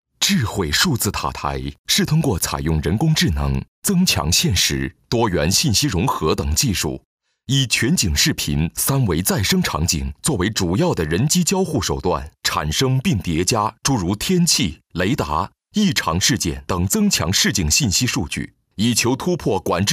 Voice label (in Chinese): 宣传片, 沉稳; 沉稳智慧数字塔台宣传片